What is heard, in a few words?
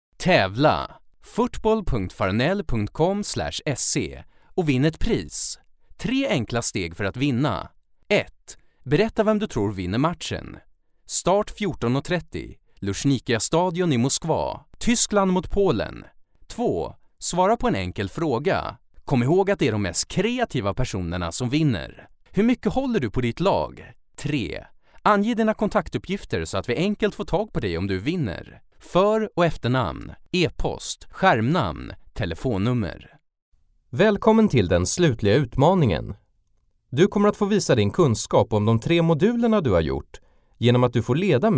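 Swedish male, standard; lektoring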